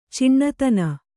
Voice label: "ಚಿಣ್ಣತನ", kannada

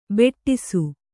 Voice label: "ಬಡಿಸ", Kannada

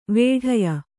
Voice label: "ವಿಡಾಯ", Kannada

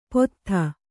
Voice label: "ಪೊತ್ಥ", Kannada

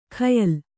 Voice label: "ಖಯಲ್", Kannada

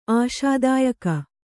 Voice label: "ಆಶಾದಾಯಕಿ", Kannada

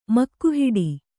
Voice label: "ಮುಖಹೇಡಿ", kannada

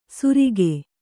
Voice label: "ಸುರಿಗೆ", Kannada

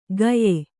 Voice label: "ಗಯೆ", kannada

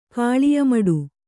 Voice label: "ಕಾಳಿಯಮಡು", Kannada

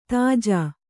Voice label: "ತೇಜಿ", Kannada

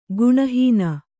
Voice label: "ಗುಣಹೀನ", Kannada; guṇa hīna; noun

